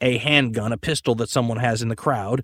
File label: "hand gun a pistol that someone has in the crowd", Voice